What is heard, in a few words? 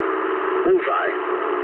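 English bomb success mood low; War Thunder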